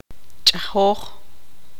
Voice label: tzutujil; lavar